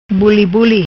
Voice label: "Bulebule", Macanese